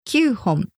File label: "kyuuhon", Japanese